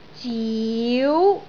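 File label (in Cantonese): "ziu2", Cantonese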